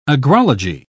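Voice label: "agrology", British English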